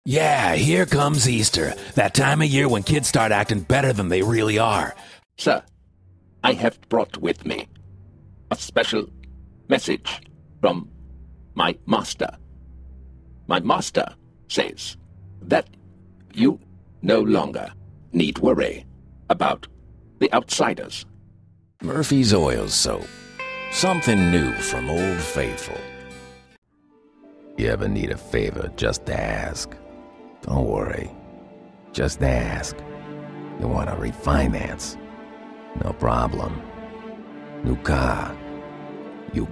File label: Character